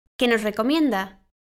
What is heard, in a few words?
conversation